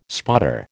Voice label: "spotter", American